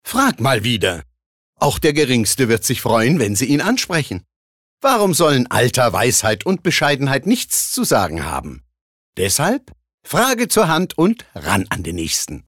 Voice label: Werbung Dynamisch

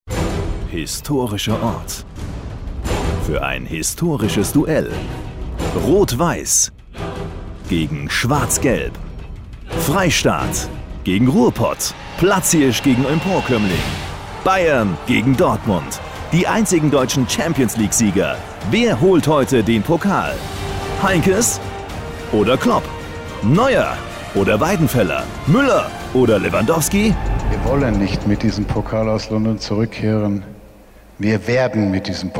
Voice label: Werbung Variabel

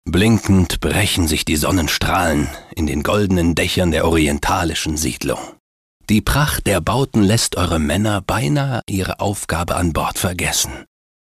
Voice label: Sachlich

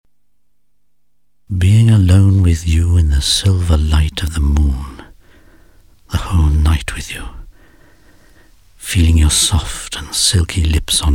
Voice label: Eng UK Schauspiel Poetisch

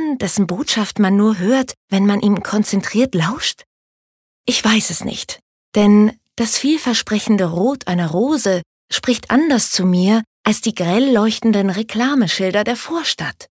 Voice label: Farbe Rot Sanft

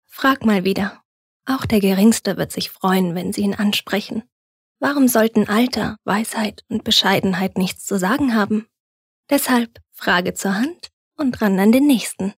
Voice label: Werbung, Sanft

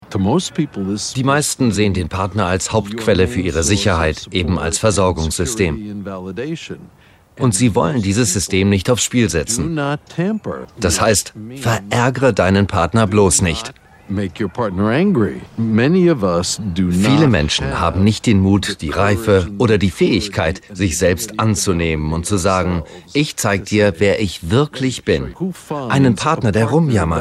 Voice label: Kommentar Neutral

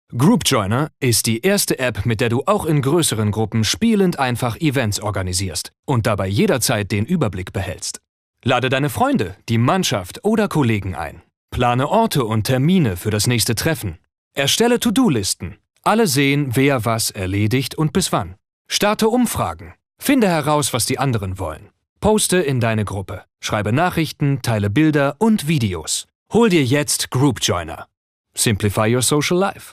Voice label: Freundlich

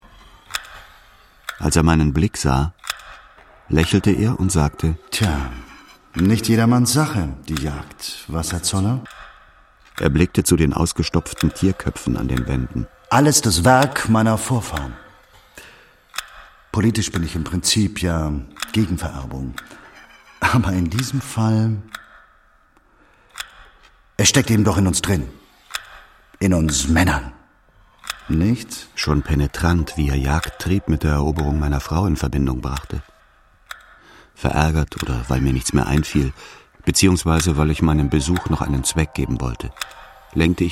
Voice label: Geheimnisvoll

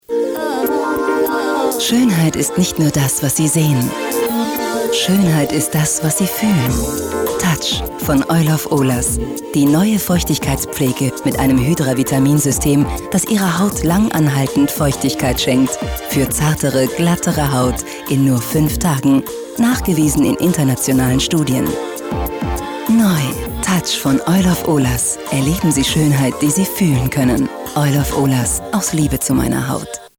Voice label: W Fremdtake, Sanft

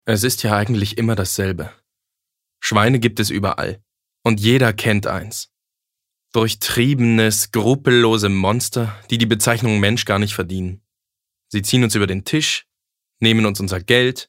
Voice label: Neutral; Schweine

